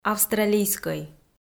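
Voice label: "австралийскӧй", Komi, female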